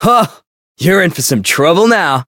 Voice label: Voice variable chant